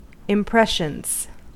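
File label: "impressions", US English; pronunciations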